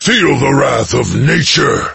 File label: warmonger mage taunt